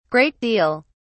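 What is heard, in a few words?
"great deal", British English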